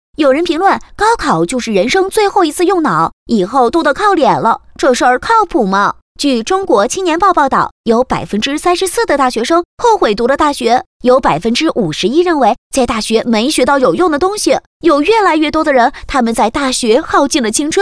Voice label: 女 宣传片 病毒 高考

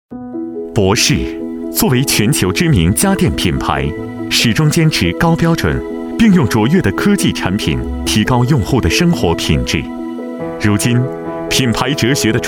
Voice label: 男 广告 年轻; 家居家电; 博世家居

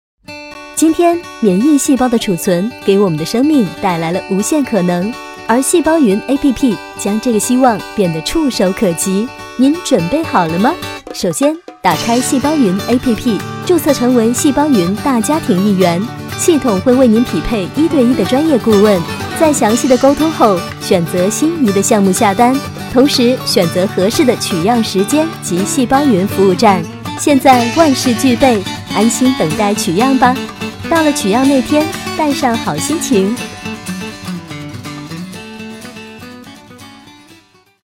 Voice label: 专题 产品 免疫细胞贮存 轻松

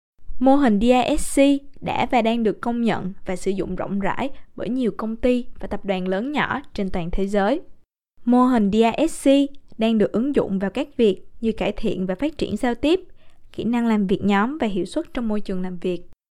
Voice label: 越南语女, 外语, 小语种, 年轻

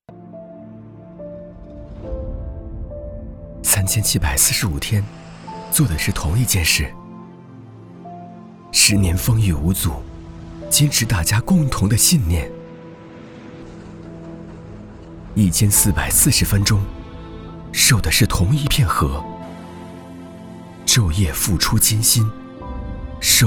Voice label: 其他 旁白 正能量活力积极向上, 男; 守护者

